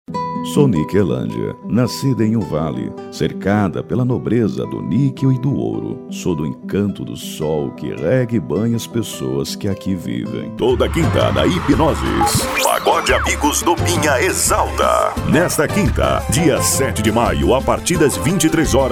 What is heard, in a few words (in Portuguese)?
locutor